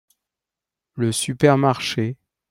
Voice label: pronunciation fr le supermarché

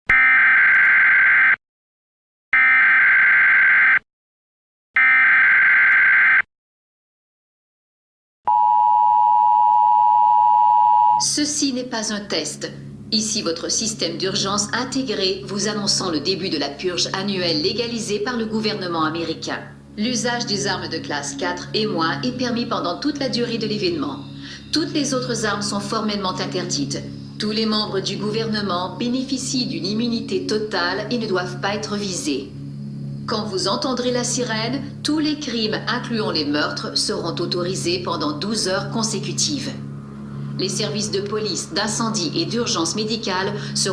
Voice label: announcement french version; the purge

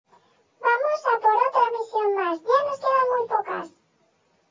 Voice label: voice changer

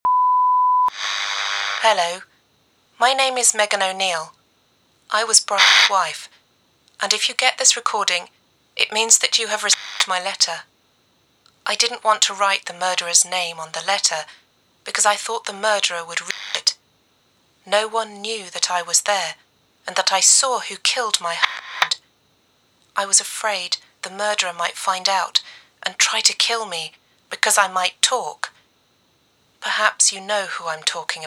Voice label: phone call

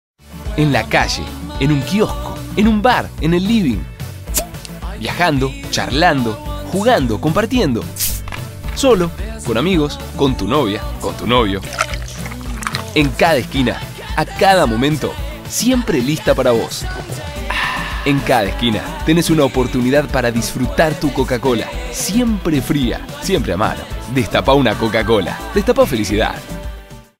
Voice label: Spot Radio; Coca-Cola

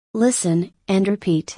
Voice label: listen and repeat

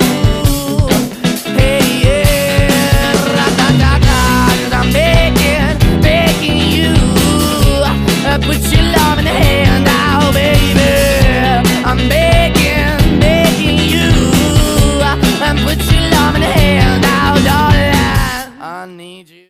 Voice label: Begging

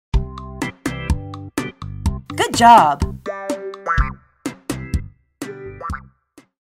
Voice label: Good Job Funny

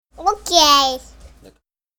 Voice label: child says ok

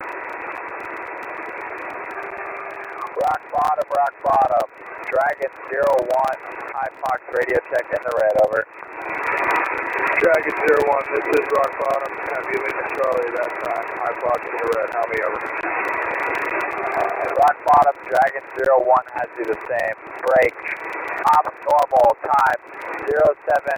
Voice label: english voice

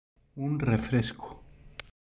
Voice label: voice changer

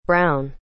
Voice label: "brown" "marron"

